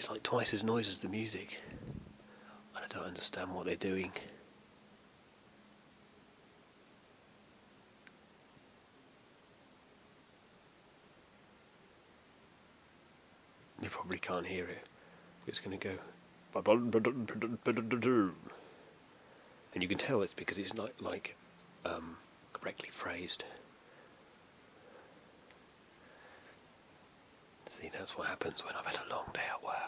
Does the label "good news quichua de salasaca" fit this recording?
No